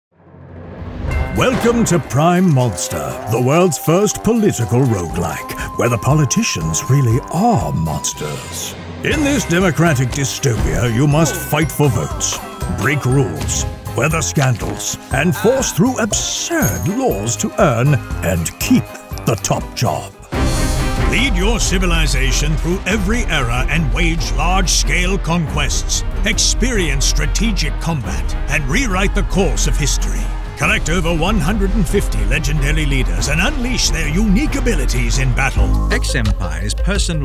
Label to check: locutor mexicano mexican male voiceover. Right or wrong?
Wrong